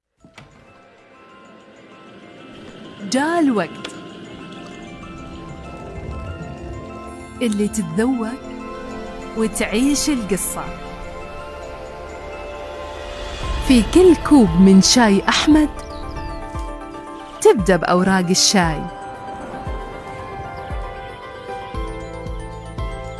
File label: arabic corporate videos, arabic voice actors